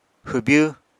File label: "hwbyw", Apatani